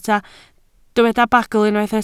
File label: "bagl", Welsh